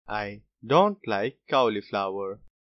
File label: cauliflower dont like, boy, english; grade1; what do you like